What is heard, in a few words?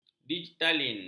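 "digitalin", Malay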